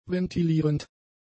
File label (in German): "ventilierend", German; adjectives